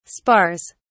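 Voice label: "spars", British English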